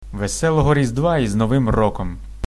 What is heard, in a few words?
christmas ukranian